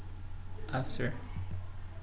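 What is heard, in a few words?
"after", Brazilian, male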